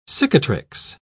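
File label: "cicatrix", British English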